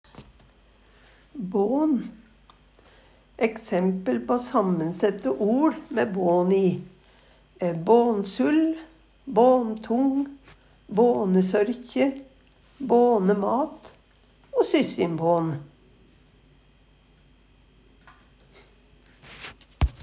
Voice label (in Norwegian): "bån", Numedalsmål; Eksempel på sammensette oL mæ bån i, æ: bånsull, båntong, bånesørkje, bånemat, syskjinbån